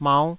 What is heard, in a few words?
"mauh5", Taiwanese syllable